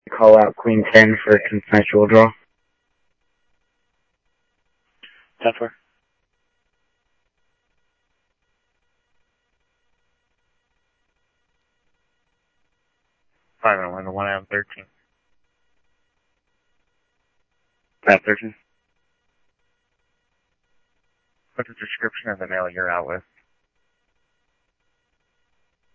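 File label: Mesa Simulcast Police Department; MESA PD 1 Fiesta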